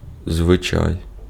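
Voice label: Ukrainian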